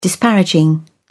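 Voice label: "disparaging", English, British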